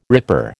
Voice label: "ripper", American

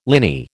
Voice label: "liny", American

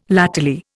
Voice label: "latterly", Indian

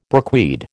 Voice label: "brookweed", American

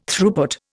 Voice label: "Throughput", Indian

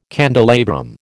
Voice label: "candelabrum", American

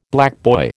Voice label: "blackboy", American

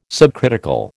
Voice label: "subcritical", American

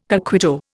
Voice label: "coquito", Indian

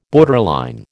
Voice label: "borderline", American

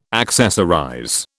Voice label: "accessorize", British

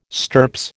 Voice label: "stirps", American

